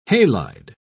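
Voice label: "halide", British English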